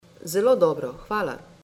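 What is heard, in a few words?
Very Well Thanks, Slovenian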